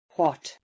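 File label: "what", English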